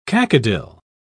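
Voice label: "cacodyl", British English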